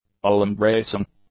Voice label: "all-embracing", English; adjectives

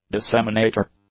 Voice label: "disseminator", English; nouns

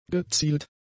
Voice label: "gezielt", German; adjectives

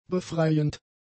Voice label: "befreiend", German; adjectives

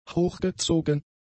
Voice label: "hochgezogen", German; adjectives

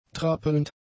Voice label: "trappelnd", German; adjectives

